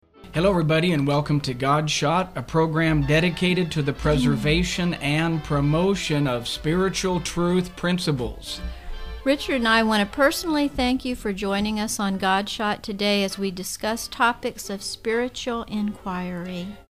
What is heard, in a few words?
Website Promo; God Shot; TSC Radio